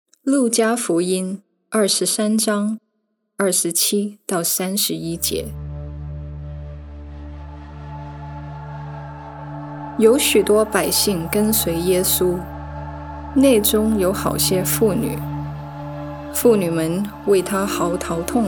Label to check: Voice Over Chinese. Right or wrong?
Right